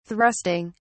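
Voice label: "thrusting", British English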